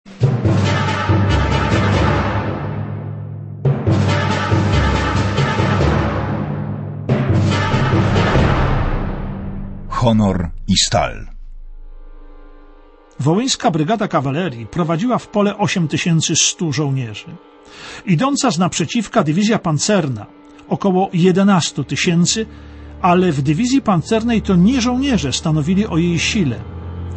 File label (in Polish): Szabla Polska, audiobook; Wołoszański Bogusław; Żołnierze Honoru; Lektor PL